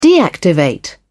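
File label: "deactivate", en, British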